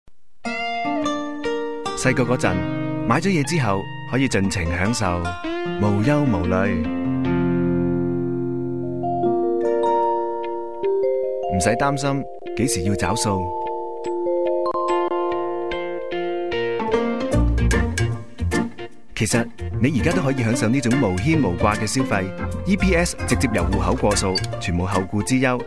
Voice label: Commercial